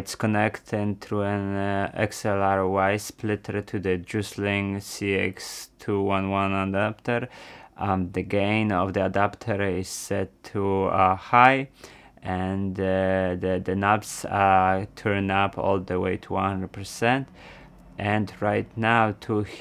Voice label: Rode NTG2; juicedlink